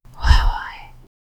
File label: someone whispering